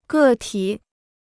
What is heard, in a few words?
"个体", Chinese